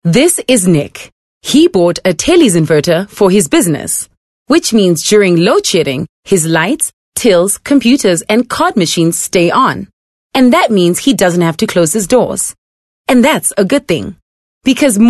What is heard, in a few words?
English bright